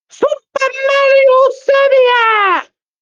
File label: voice message